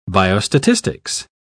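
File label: "biostatistics", British English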